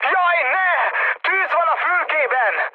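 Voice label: Hungarian on fire mood high, speech; War Thunder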